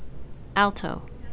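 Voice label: "alto", CAN, female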